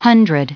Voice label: "hundred" en-us recite